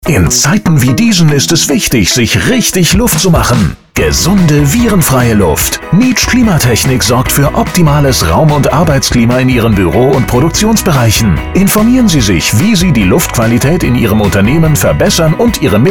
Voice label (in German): Spot Klimatechnik; Nitsch Klimatechnik